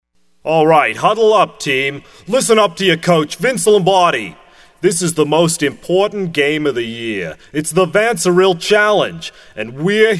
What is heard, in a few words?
IVR Prompts; Vanceril